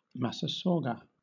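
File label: "massasauga", British English; pronunciations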